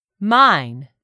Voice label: "MINE", english; 3000 words